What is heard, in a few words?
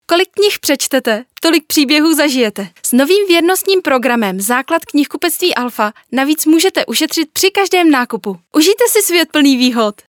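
Czech, female, commercial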